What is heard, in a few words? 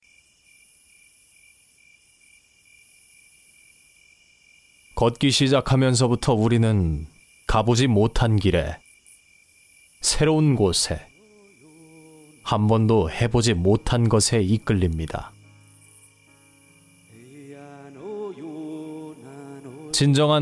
Commercial